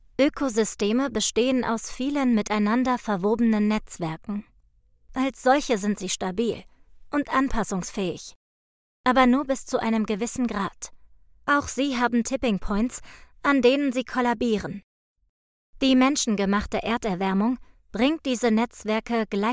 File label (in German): Dokumentation die Zerbrechlichkeit der Welt